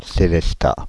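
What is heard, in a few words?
"Sélestat", French, Paris; Селеста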